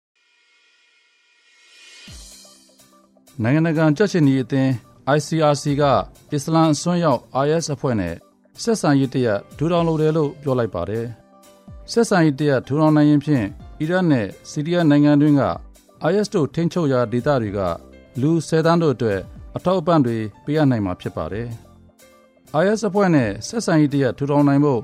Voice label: news